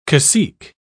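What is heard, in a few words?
"cacique", British English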